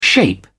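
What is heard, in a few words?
"shape up", British English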